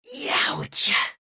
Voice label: pain severe; Adachi

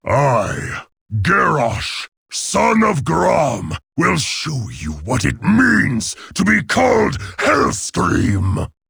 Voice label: battlecry; garrosh

